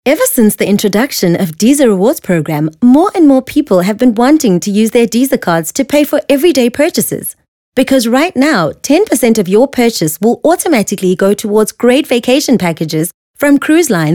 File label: English Corporate; OSM Talent